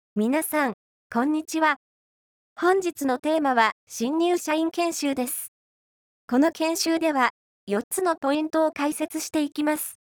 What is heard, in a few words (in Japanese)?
コールセンター女性イメージ; 波形接続型音声合成方式による女性の声; お電話ありがとうございます。こちらは、インフォメーションセンターです。; ご希望の番号をお選びください。案内の途中でも、番号操作は可能です。; 近年の音声合成ソフト